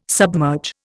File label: "submerge", Indian